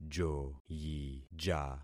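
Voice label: "joyija", concatenated syllables; Affixing experiment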